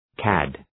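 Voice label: "cad", English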